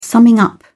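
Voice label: "summing up", British English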